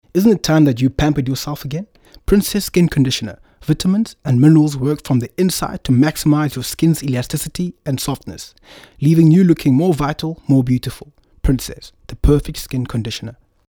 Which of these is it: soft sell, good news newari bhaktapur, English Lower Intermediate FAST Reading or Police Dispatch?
soft sell